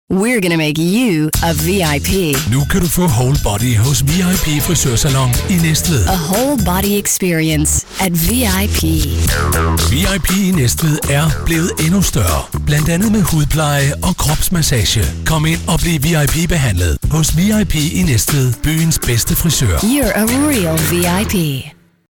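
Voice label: Danish commercial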